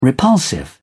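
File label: "repulsive", British English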